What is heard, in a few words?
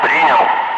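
Russian speech, Ka-50 Wingman; roger; DCS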